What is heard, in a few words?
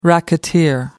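"racketeer", British English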